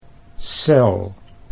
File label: "cel", Dutch